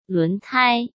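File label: "轮胎", Mandarin Chinese; chinese flashcards; hsk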